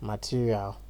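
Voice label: "material", Nigerian Pidgin, male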